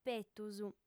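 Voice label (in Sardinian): "petus", female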